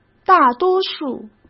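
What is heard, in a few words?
"大多数", Mandarin Chinese; hsk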